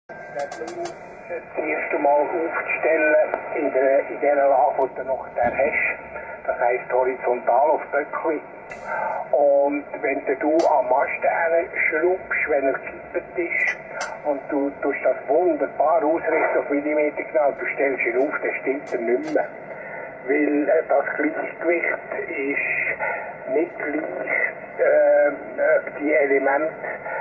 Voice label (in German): Baernduetsch